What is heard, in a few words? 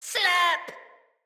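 Attack Corrupted Sprouts, en; Dialog; Battle Barks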